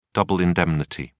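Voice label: "double indemnity", British English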